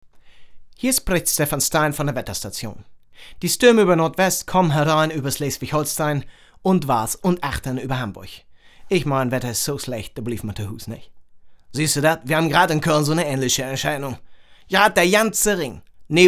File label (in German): DEUTSCHE DIALEKTE